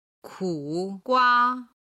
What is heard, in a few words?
"ku gua", Chinese